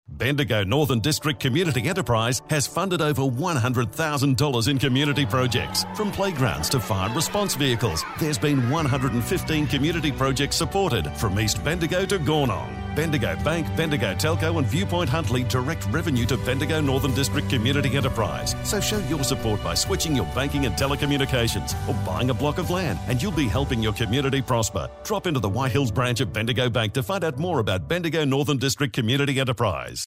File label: radio ad